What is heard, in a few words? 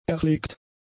"erlegt", German; adjectives